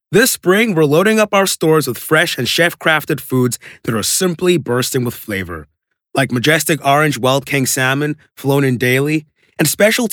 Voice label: US Conversational